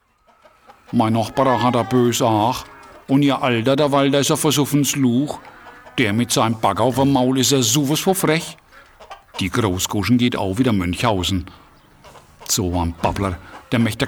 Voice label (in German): Deutsche Dialekte Franke